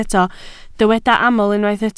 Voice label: "aml", Welsh

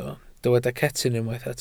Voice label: "cetyn", Welsh

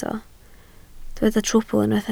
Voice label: "trwbl", Welsh

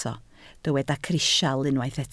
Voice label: "crisial", Welsh

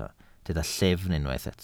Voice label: "llyfn", Welsh

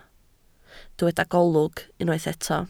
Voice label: "golwg", Welsh

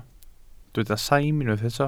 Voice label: "saim", Welsh

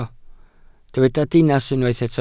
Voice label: "dinas", welsh

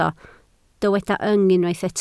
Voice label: "yng", Welsh

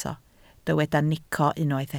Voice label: "nico", Welsh

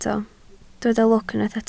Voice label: "lwc", Welsh

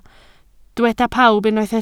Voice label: "pawb", Welsh

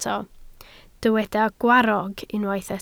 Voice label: "gwarrog", Welsh

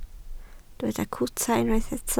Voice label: "cwta", Welsh

